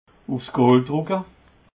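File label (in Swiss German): "gooldrucke", Alsatian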